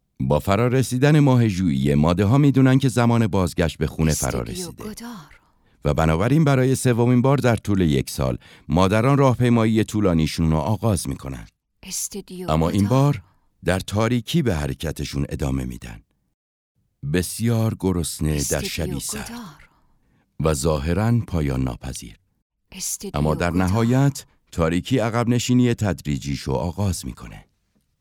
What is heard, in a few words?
documentary